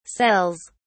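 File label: "cells", British English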